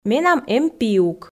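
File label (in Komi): менам эм пиук, Komi, female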